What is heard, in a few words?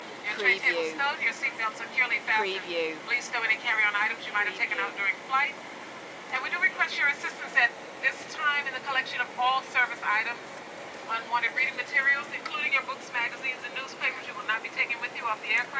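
Stewardess Safety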